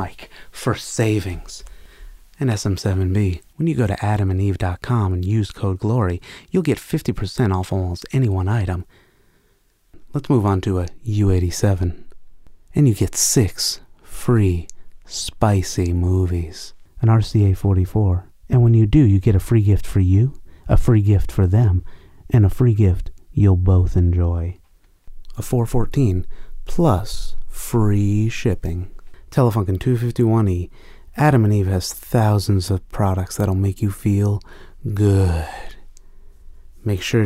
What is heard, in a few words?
Ad Mic Shootout